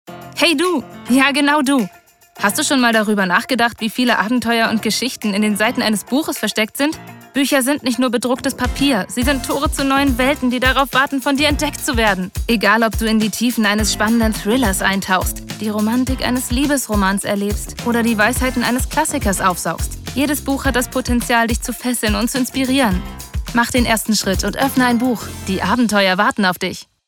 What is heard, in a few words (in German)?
Werbung Buch; LOFT VOICES